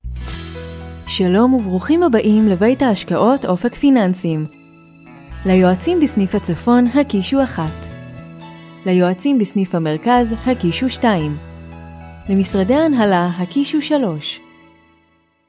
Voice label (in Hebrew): קריינות למרכזיה; אופק פיננסים